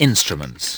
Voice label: LoFi Words, LoFi Vocals